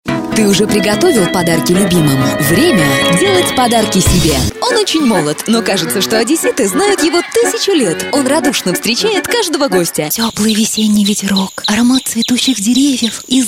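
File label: Russian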